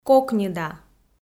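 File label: "кокньыда", Komi, female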